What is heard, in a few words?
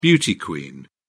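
"beauty queen", British English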